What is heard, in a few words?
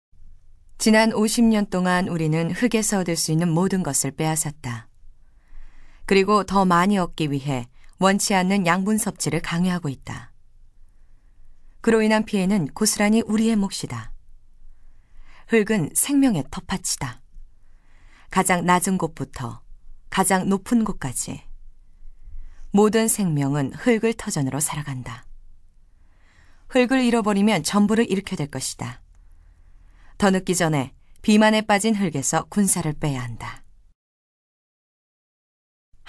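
Korean female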